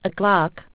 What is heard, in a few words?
aus, aussie prompts